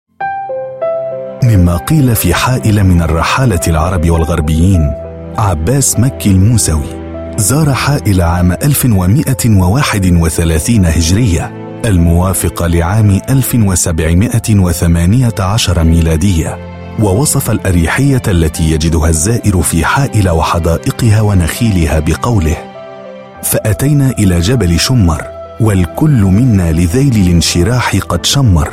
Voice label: arabic voice over male narrator